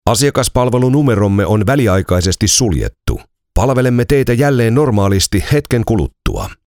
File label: finnish ivr